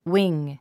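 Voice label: "wing", English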